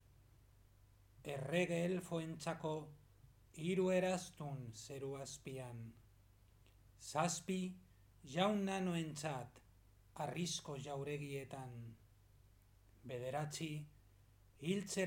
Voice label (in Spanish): Poema anillo Euskera